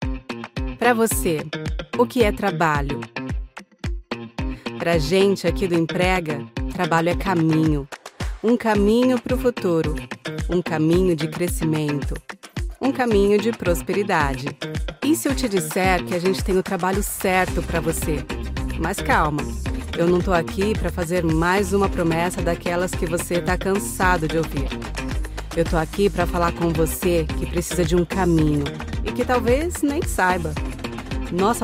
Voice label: portuguese brazilian narration